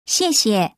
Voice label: thank you mandarin